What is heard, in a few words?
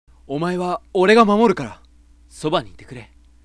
sample sweet mid, voice